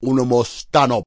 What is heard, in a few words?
unintelligible rasta, Rastafarian; VOCALS and SPOKEN WORD